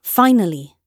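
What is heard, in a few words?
"finally", British English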